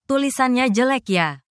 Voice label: indonesian phrases

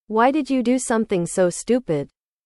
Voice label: english

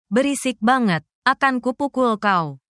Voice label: indonesian phrases

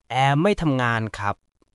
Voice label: Thai, basic thai phrases

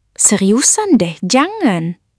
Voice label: indonesian phrases

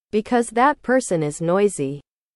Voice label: english

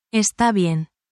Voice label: spanish, spanish phrases

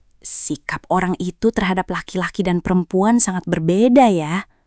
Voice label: indonesian phrases

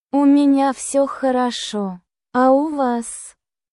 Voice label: russian phrases; 100 must know russian phrases for everyday conversation

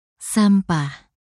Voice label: indonesia, negative phrases

